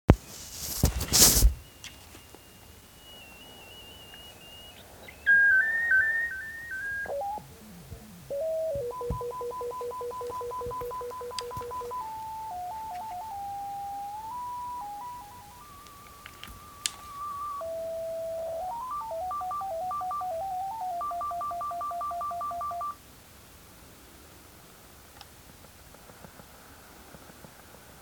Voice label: message, 11409KHZ USB, number stations; dash number stations recordings; recordings from other places